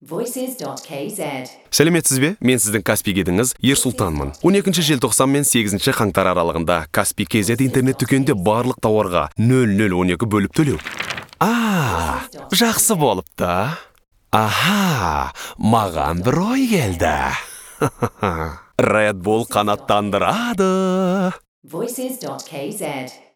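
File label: kazakh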